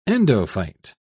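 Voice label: "endophyte", British English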